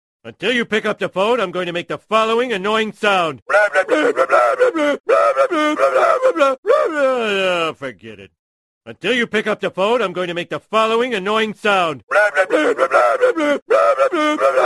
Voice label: pick up the phone voice tone ringtone

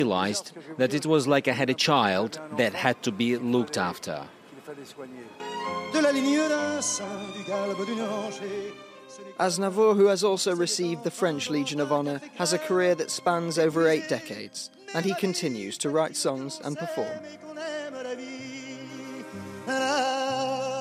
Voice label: female english ringtone

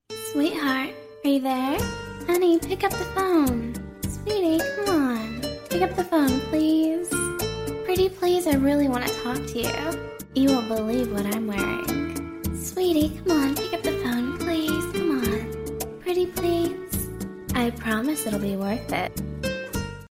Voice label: pick up the phone girlfriend call tone ringtone

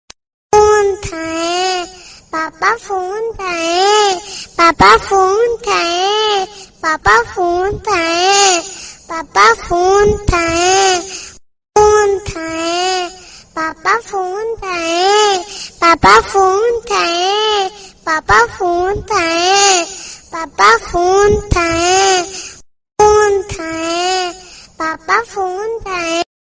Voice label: hello papa phone uthain cute tone ringtone

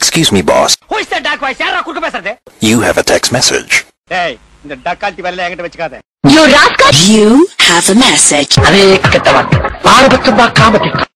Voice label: funny in tamil ringtone

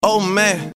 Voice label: oh man ringtone

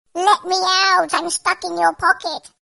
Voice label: let me out funny ringtone; funny ringtones

